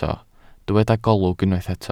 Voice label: "golwg", Welsh